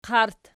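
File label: "qart" nominative, Archi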